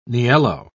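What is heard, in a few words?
"niello", British English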